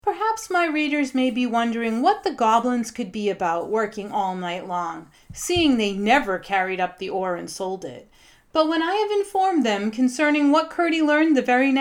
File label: Audiobook; Fiction; Professional voice acting